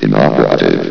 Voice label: "inoperative", vox